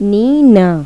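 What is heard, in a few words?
"niña", Spanish; Pipo-Aprende musica con Pipo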